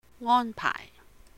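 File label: "ngōn pàaih 安排", Cantonese; arrange, arrangement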